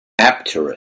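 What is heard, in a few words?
"h2eptero", Proto-Indo-European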